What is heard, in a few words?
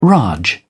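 "raj", British English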